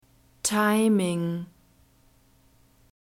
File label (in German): "timing", German; neuter